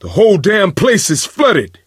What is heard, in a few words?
misc directional, coach, survivor voice